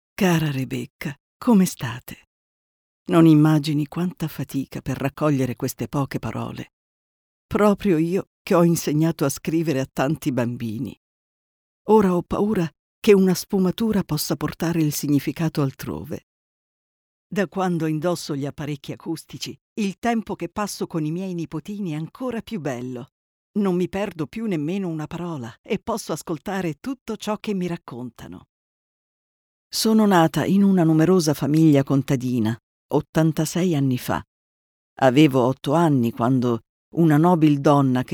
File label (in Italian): Mix voci anziane